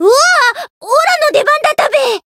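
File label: variable chant, Japanese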